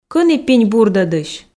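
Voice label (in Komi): кӧні пинь бурдӧдысь, Komi, female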